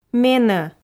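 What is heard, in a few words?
"мено", Komi, female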